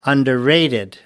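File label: "underrated", English, British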